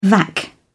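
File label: "vac", British English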